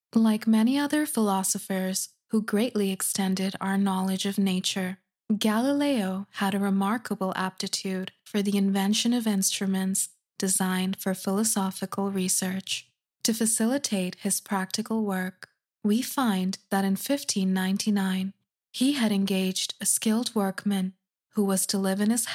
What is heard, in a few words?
audiobook